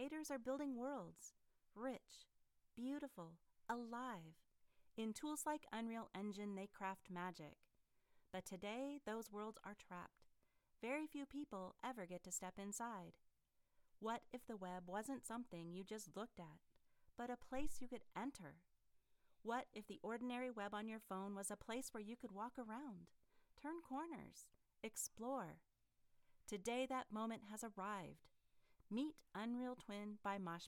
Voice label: Video Narration; Moshpit